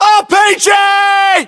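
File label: threat rpg, British; localized english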